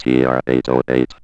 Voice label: ROBO VOX; Masterbits - Tekkno Trax